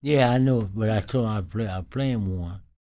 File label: talk, spoken, blues soul